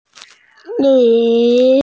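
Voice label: "nv3", Mandarin Chinese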